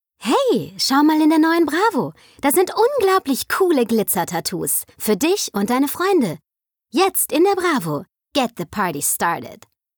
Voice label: Werbung; Bravo

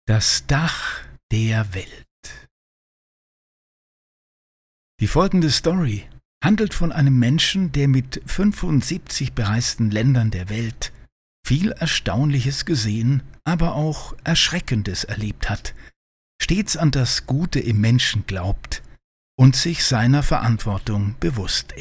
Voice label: Doku; Nepal

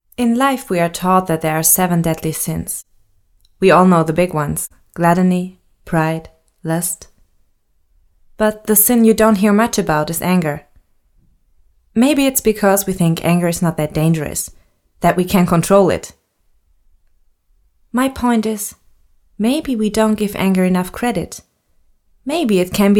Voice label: Hoerbuch engl; Anger

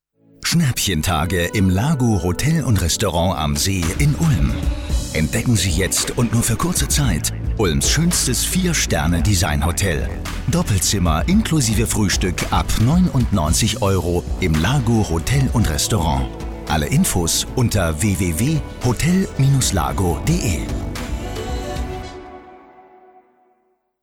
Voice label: Hotel, Sprecher

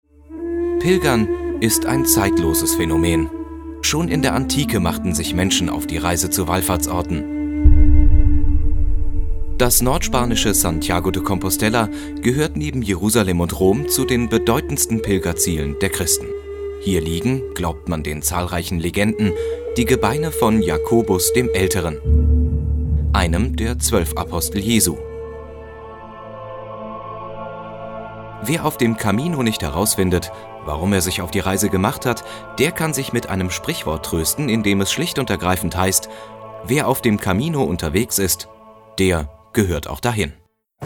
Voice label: doku; camino

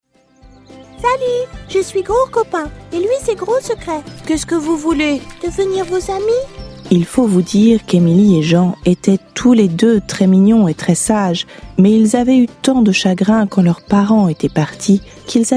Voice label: Zeichentrick franzoesisch

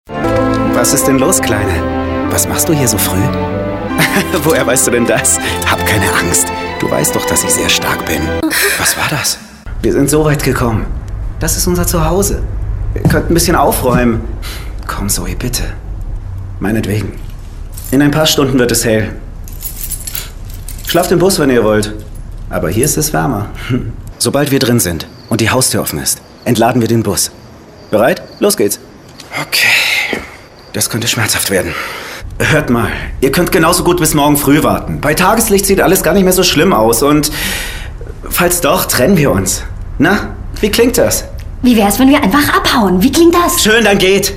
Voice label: Synchron weich jung sanft freundlich vorsichtig emotional; Tales of Vesperia; Spiderhole

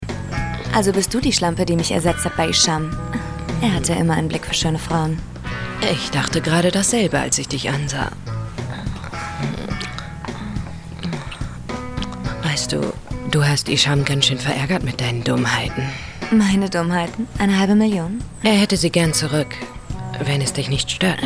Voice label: Dialog Thriller

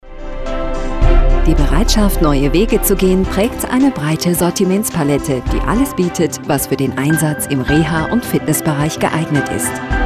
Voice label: Imagefilm Medizintechnik charmant informativ; FREI AG

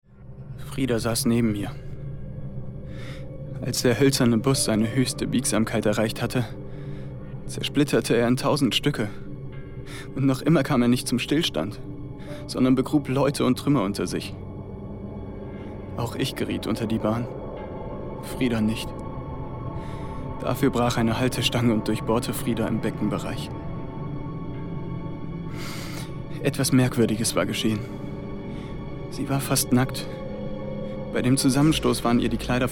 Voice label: Hörspiel Rolle; Frida Kahlo; Alejandro; Headroom Studio Köln

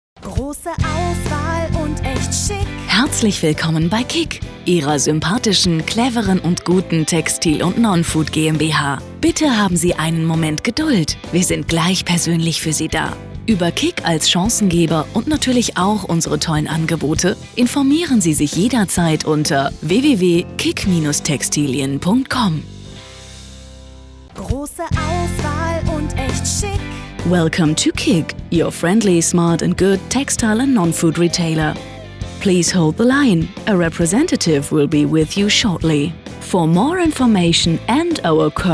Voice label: Warteschleife

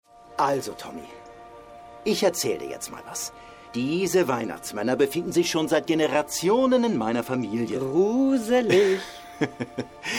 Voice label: Synchron; Santa Claws

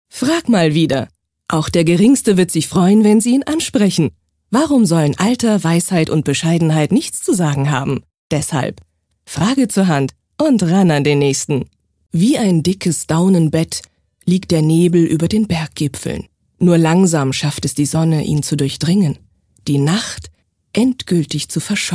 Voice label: Werbung Potpourri

